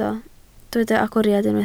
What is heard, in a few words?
"agoriad", Welsh